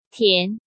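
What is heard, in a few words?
"Tián", Chinese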